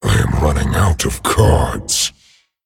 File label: Male Dragon Low Cards